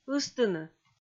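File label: "ыстыны", Komi, female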